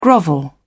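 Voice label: "grovel", British English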